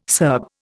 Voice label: "Serb", Indian